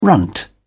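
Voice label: "runt", British English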